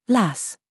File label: DRESS TRAP, minimal pairs